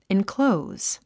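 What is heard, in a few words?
"enclose", English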